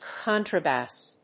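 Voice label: "contrabass", English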